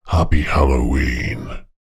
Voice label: horror voice happy halloween male creepy; zapsplat